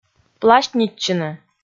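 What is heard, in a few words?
"пласьтнитчыны", Komi, female; audio dict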